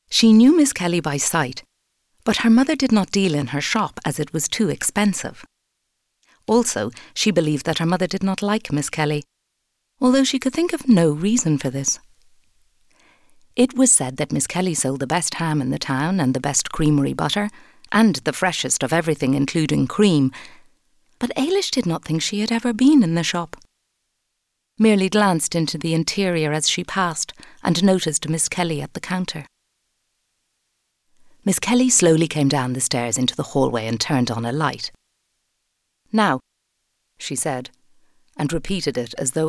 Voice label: AudioBook Novel